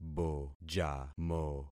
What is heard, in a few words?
"bojamo", concat syllables; Affixing experiment